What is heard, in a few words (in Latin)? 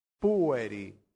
"pueri", latin; santillana